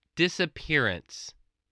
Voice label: "disappearance", english spelling